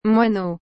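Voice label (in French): "moineau", French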